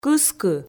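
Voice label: "кыскы", Komi, female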